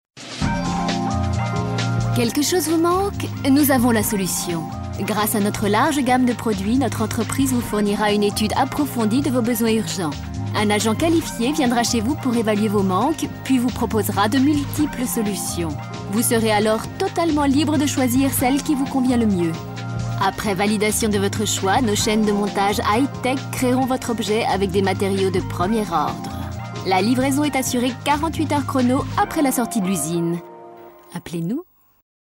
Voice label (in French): Publicite